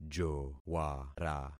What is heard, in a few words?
"jowara", concat syllables; Affixing experiment